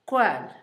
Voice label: totomoxtle, Zapoteco, Asunción Ocotlan; INALI; Haspelmath

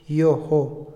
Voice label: par, Otomí, hombre; Cantidad; INALI

